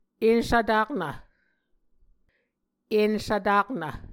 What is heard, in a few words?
"ensvtaknv", Muscogee